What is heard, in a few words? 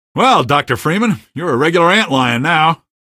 pheropod nag, male; hl2; coast; bugbait; Source engine